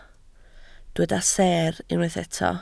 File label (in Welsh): "seer", Welsh